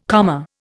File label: "comma", Indian